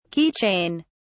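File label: "key chain", British English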